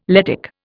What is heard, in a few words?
"Lettic", Indian